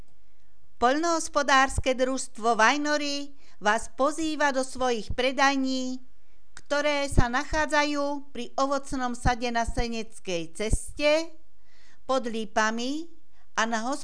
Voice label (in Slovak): komercny oznam; pd vajnory